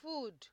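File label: "food", Nigerian Pidgin, female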